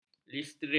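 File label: "listeria", Malay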